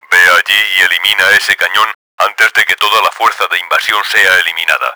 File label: attack warspite, Spanish; Battle Engine Aquila; XBOX